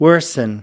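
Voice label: "worsen", US English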